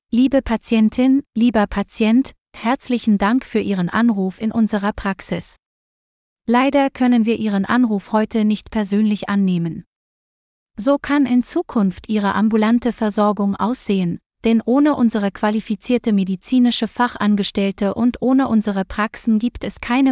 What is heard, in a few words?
Female